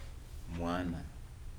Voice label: "child", Kibembe

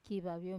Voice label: "kivavio", speech, Wamesa

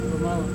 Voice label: "romawa", child, Serewen

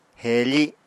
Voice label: "heefi", apatani

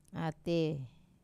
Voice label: "ate", Wamesa; ladder

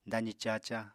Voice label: "dazi caca", Apatani

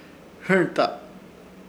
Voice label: "Hɨrta" palma, Ishir Chamacoco

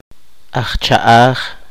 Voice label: poqomchi; pelotero

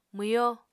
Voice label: "mwyo", Apatani

